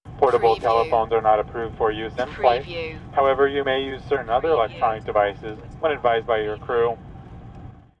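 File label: Steward Electronic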